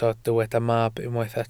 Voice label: "mab", Welsh